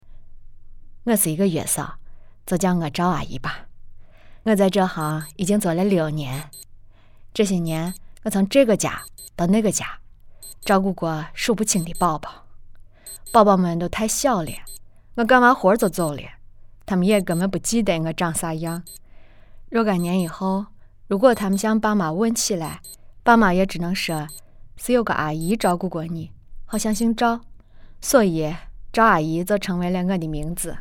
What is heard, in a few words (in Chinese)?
独白陕西月嫂自述方言